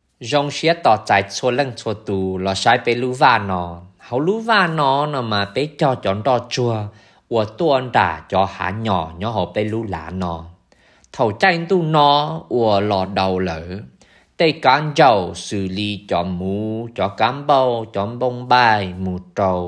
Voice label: Winter Prairieview Hmong